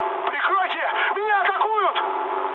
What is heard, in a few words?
aircraft damaged, mood med, speech ru